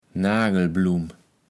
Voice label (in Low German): "Naagelblumm", Platt